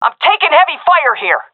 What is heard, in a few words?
taking fire, seal fem; vo; Counter Strike Model Pack; CS:GO